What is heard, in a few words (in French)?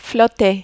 "flotter", French